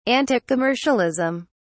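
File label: "anticommercialism", British English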